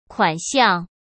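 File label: "款项", Mandarin Chinese; hsk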